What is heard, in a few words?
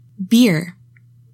"beer", english; 3000 words